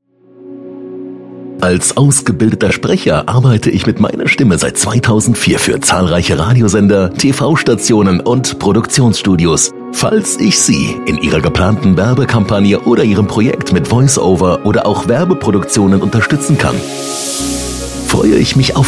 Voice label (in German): Sprecher Werbesprecher